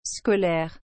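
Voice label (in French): "scolaire", French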